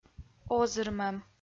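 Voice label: "озырмӧм", Komi, female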